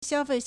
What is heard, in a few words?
"xiao1fei4", Chinese